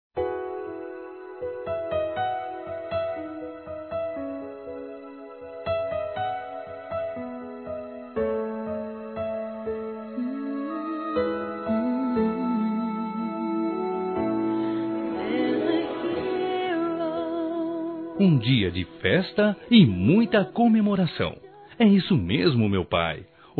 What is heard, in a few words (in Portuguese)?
PAI COMO MÃE, Telemensagens Dia das Mães, Masc; Telemensagens 2017